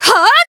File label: attack, jp